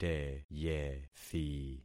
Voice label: "teyethi", concat syllables; Affixing experiment